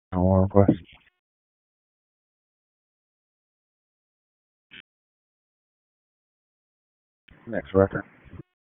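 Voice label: Police Northeast District Dispatch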